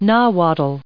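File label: "Nahuatl", English, us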